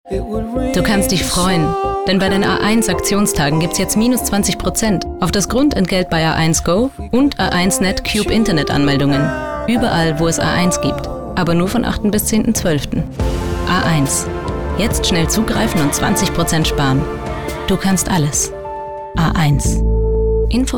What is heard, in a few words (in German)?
Frau OFF